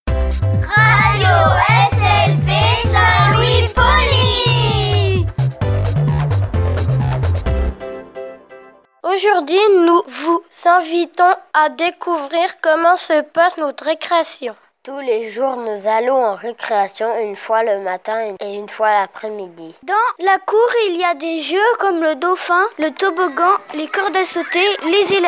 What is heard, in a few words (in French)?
reportage recre